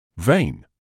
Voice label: "vein", medical English

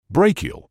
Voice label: "brachial", medical english uk; BRAY-kee-uhl; ˈbreɪ.kiː.əl

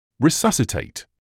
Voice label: "resuscitate", English

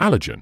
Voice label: "allergen", medical english uk; ˈæl.ə.dʒən; AL-uh-juhn

